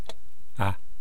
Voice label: "a", East Cree syllabics

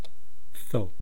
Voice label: "thwaa", East Cree syllabics

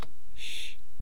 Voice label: "sh", east cree syllabics

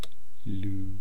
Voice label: "luu", East Cree syllabics

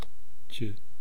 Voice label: "chu", East Cree syllabics

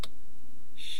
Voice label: "shi", east cree syllabics

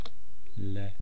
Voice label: "le", east cree syllabics

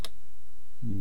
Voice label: "ne", east cree syllabics

